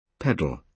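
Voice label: "pedal", British English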